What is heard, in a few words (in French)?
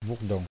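"bourdon" French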